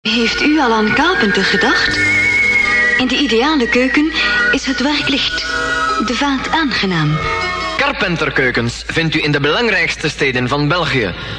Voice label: commercial